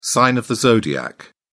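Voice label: "sign of the zodiac", British English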